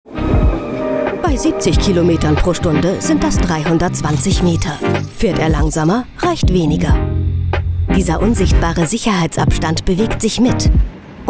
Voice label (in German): erklaerfilm, Sprecherin; hamburger hochbahn